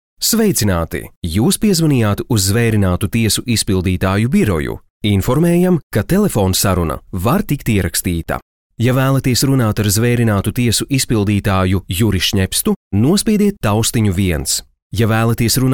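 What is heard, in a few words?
Latvian, male, interactive voice response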